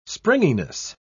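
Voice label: "springiness", English, British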